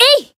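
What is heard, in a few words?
Voice attack, Japanese; isuka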